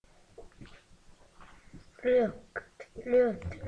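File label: "lute", spoken word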